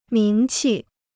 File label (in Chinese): "名气", Chinese